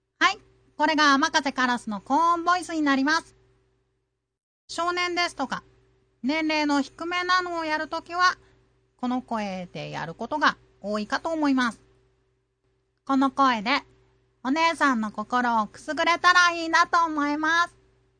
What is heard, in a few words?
high, voice